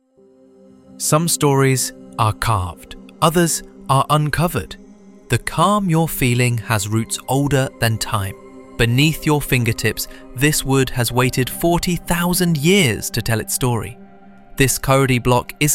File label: Voiceover with Cinematic Music; KauriVault